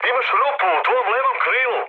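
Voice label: Serbian left wing mood med; War Thunder; speech srb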